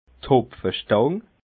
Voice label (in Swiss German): "hopfestang", alsace